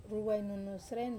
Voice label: "ruwainunu sren", Wamesa